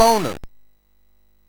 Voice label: Bouncy Balls speech, MOS 6581